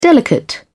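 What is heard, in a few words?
"delicate", British English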